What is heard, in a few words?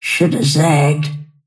lasthit pain; snapfire